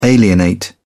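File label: "alienate", British English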